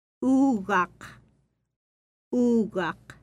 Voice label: Inuit; Phonetics